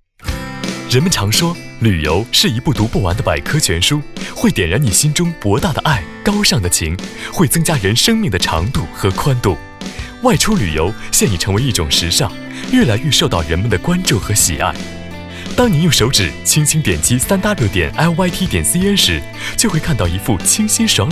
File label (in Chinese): 广告 旅游 年轻; 手机旅游通